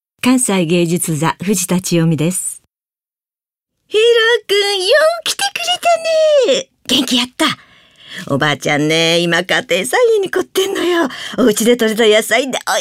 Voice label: drama